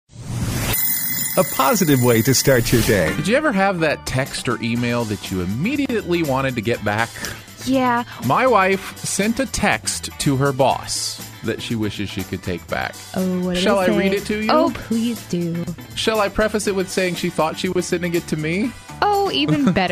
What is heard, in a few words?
Promo